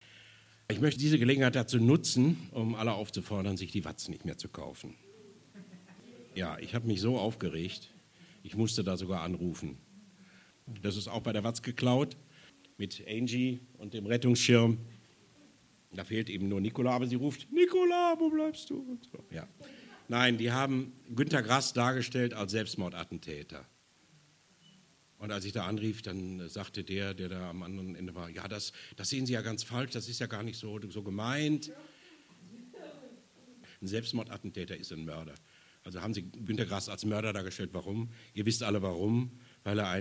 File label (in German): ansage